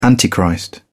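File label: "antichrist", English, British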